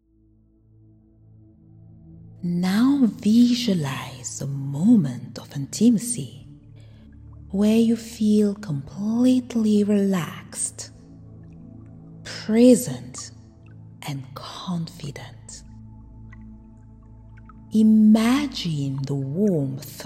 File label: Overcoming Impotence Hypnosis for Intimate Confidence and Vitality